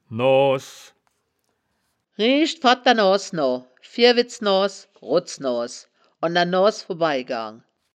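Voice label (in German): "noas", wittlicher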